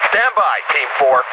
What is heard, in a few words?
Dispatch